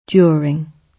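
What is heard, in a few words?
"during", British English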